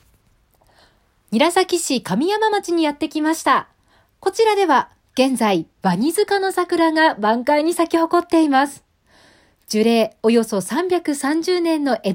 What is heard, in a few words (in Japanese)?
ボイスサンプル リポート